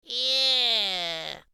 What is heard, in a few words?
"Yeah" Cartoon